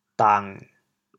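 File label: "dang1", Teochew, chaoyang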